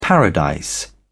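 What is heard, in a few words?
"paradise", British English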